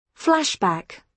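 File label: "flashback", British English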